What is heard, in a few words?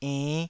"enn5", taiwanese syllable